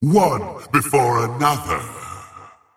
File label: lasthit pain; razor